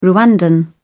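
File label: "rwandan", British English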